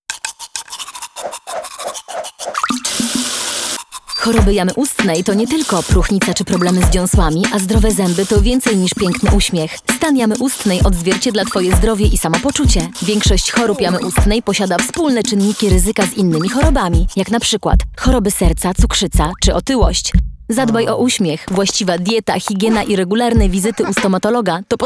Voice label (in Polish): spot radiowy; Polska mówi aaa